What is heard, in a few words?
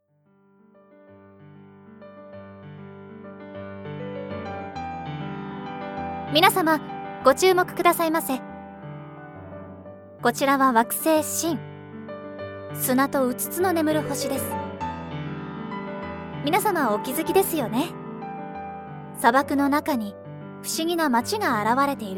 announce